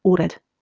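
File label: "ured", Proto-Indo-European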